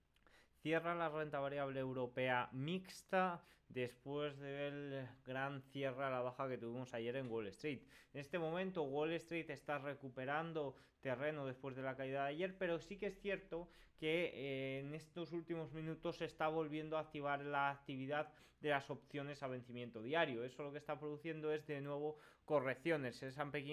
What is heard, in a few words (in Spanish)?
radio; noticias bolsa; indices americanos al alza tras un debil dato de pce